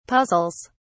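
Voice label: "puzzles", British English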